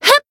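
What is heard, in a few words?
Voice attack, Japanese; hisumena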